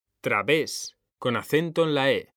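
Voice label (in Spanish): "través", Spanish; mekanta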